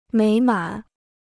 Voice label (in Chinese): "美满", Chinese